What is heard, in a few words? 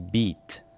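"beat", English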